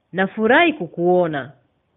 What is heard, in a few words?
meet, swahili